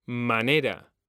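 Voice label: "manera", Spanish, male; mekanta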